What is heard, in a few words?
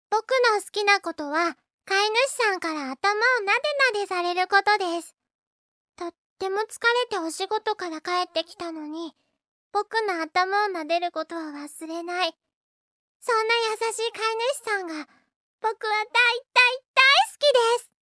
child; ペット？; 怒るというか怒鳴るというか。; とりあえずいろいろ